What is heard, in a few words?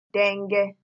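"denge", Nigerian Pidgin, female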